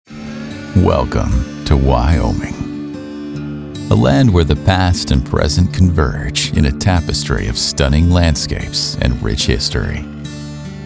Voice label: Narration